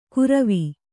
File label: "ಕುರವಿ", Kannada